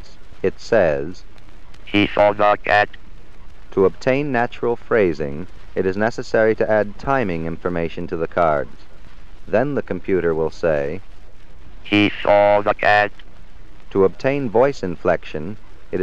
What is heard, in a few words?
synthesized speech; bell labs 1961